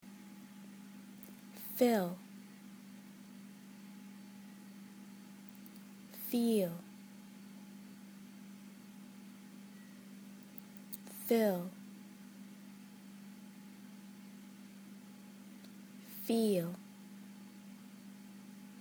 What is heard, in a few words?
shadowing fill vs feel